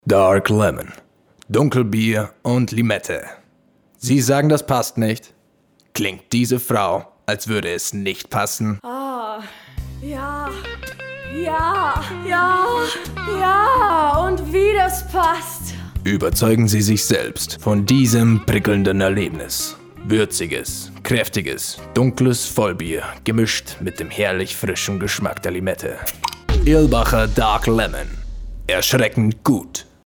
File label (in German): Werbung; Irlbacher